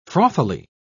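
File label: "frothily", British English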